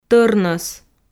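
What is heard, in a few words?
"тӧрнӧс", Komi, female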